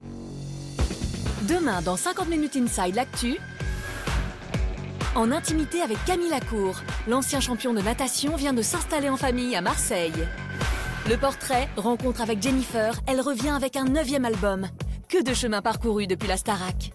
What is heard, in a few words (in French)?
Voix Off, bande annonce; TF1; 50 MN INSIDE